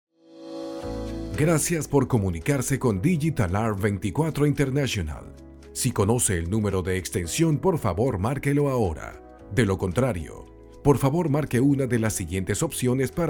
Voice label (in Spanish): ivr